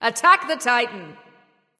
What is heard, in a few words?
vox attack m; smite; sobek